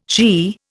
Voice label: "she", Indian